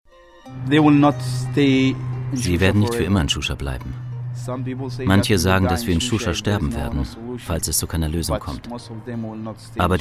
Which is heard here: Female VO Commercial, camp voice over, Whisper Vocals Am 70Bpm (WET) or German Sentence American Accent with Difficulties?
camp voice over